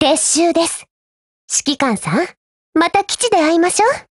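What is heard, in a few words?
WIN Japanese, Voice; GF Alarm; Kord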